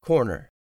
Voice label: "corner", english; 3000 words